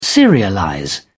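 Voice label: "serialize", British English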